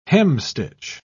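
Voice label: "hemstitch", British English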